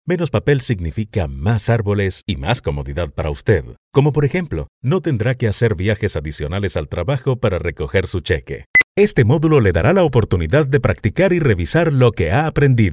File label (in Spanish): EXPLICATIVO ELEARNING